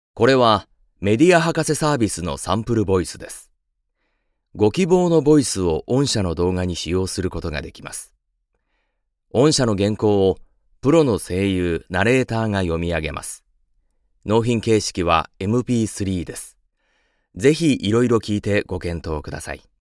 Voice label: nature, narration sample voice men